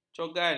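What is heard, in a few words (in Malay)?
"cogan", Malay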